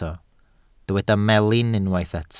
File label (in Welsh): "melin", Welsh